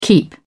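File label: "keep", British English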